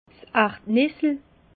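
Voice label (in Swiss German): "ardnissel", Alsatian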